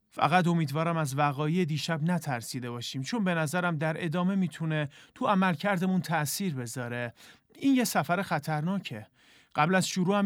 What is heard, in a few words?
dub